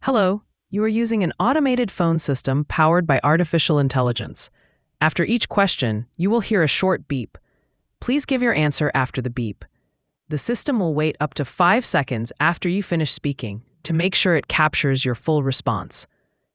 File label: welcome to ivr